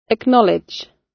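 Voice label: "acknowledge", British English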